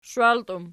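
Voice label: shwalti plural, Archi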